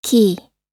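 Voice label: "key", American English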